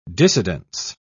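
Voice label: "dissidence", British English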